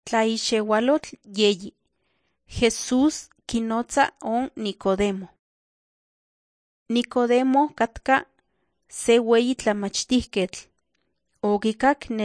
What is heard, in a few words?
nahuatl guerrero tlapa; the bible league nt drama